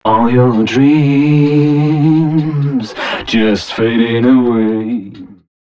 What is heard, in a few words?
male d# 130, short hooks, Dance Voice